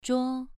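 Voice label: "zhuo2", Chinese pronunciation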